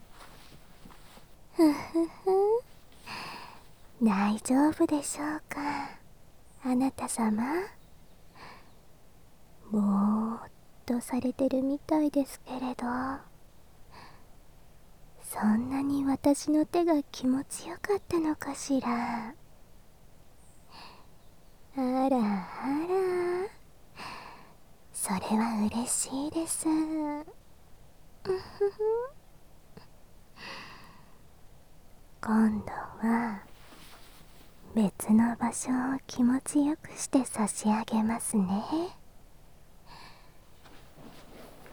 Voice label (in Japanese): 甘々癒し吐息 耳かき両耳 朝 囁きブースト効果音大きめ, ASMR; 夢見亭伝統の耳かき両耳; 隠れ宿 夢見亭 乃々佳; 和風癒しASMR